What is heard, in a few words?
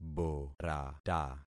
"borata", concat syllables; Affixing experiment